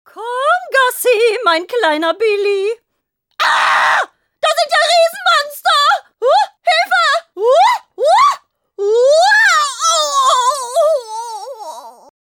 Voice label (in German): nachbarin nur Stimme; LOFT VOICES